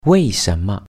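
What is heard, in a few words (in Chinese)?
"为什么" why, Chinese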